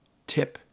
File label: "tip", Minimal Pairs; Rhymes ip